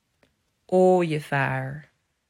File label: "Ooievaar", Dutch